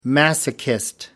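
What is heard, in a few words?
"masochist", British English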